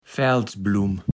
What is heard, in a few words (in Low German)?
"Fäldsblumm", Platt